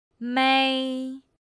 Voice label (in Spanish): "mēi", Chino